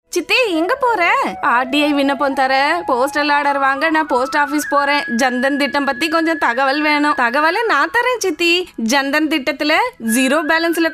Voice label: Tamil